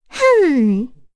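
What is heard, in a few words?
Vox Think, English; Cecilia; kings raid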